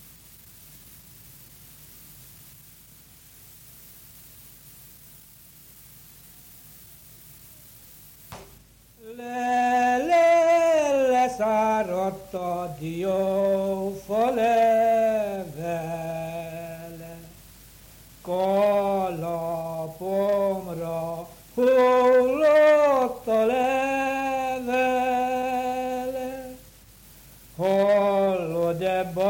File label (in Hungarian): nyelvjaras gyujtesek